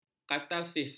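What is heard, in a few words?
"katarsis", Malay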